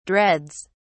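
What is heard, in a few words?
"dreads", British English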